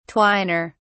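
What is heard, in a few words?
"twiner", English, British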